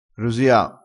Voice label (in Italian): "rosia", vocabolario dialettale